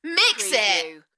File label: UK female Mix it Enthusiastic; beanstalk audio